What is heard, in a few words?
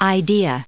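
"idea", US English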